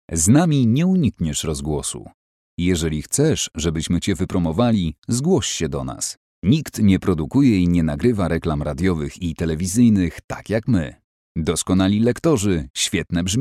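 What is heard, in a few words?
Polish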